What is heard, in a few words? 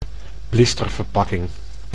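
"blisterverpakking", Dutch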